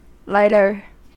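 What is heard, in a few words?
"lighter", American English; pronunciations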